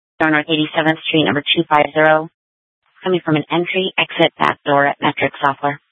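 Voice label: Police Department North Dispatch, scanner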